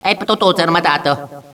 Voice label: talking, echo inside caves, underground; DS; ML BOWSERS INSIDE; Luigi